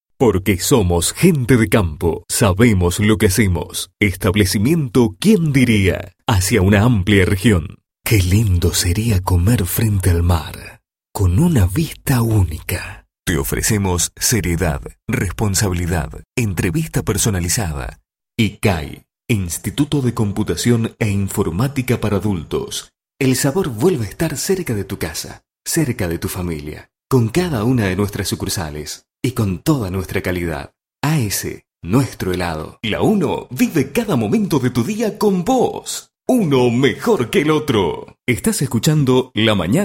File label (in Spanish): Voces Masculinas